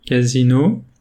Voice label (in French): "Casino", French